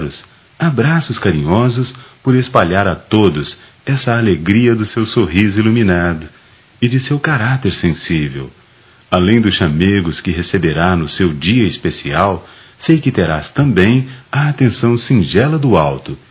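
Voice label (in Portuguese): Amiga para mãe, masculino; Dia das Maes; Telemensagens 2017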